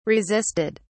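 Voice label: "resisted", British English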